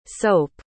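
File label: "sope", English word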